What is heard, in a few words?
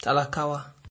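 "talakawa", Nigerian Pidgin, male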